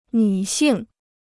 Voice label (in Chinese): "女性", Chinese; 女性 (nǚ xìng); woman; the female sex